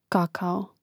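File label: "kako", Croatian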